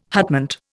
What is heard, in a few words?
"hutment", Indian